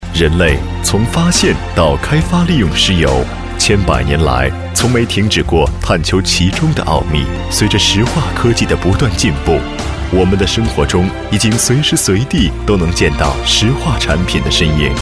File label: mandarin older